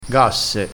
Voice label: "gasse", crespinese

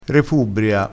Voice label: "repubbria", crespinese, vocabolario dialettale

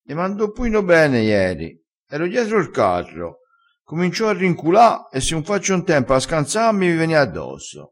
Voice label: "rincula", crespinese

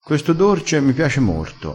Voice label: "garba", crespinese

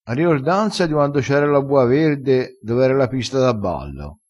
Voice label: "riordanza", crespinese

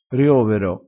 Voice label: "riovero", crespinese, vocabolario dialettale

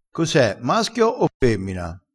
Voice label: "pisciona", crespinese

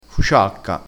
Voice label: "fuciacca", crespinese

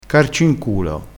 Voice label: "carcinculo", crespinese

